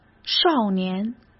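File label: "少年" Mandarin Chinese; hsk; chinese-flashcards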